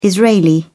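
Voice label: "israeli", British English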